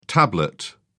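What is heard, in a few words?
"tablet", British English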